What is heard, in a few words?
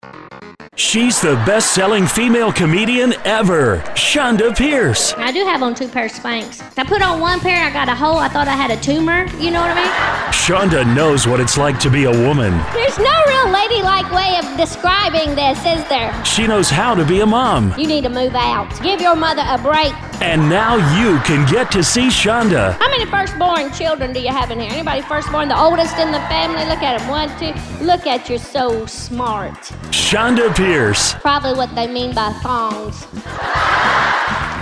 Sample Radio Spot